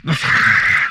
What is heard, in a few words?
humanoid male; Stellaris; humanoids